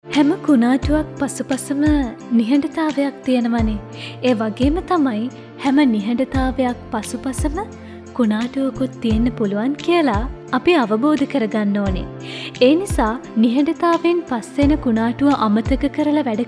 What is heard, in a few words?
female